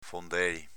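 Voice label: "fondeli", Venetian dialect; s.m.inv. fondo dei pantaloni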